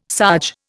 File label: "sarge", Indian